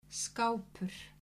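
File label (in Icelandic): "skápur", Icelandic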